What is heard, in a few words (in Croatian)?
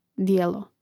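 "dijelo", Hrvatski; mreznik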